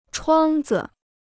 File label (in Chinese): "窗子", Chinese